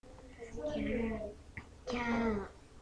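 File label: "can", spoken word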